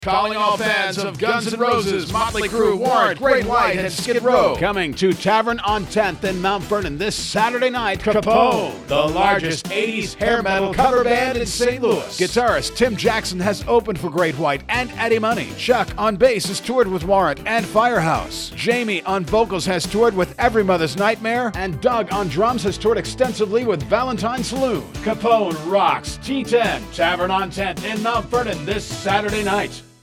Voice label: RadioAd